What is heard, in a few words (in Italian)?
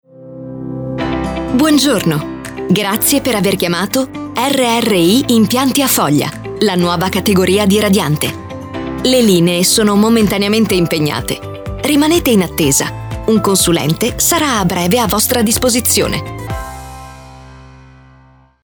Segreteria Messaggio Attesa Base